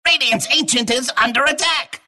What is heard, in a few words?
announcer attack; dlc clockwerk; rad